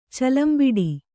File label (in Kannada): "ಚಲಂಬಿಡಿ", Kannada